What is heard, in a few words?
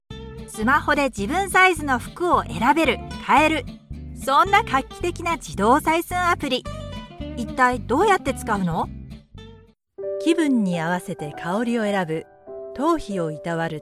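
female, Japanese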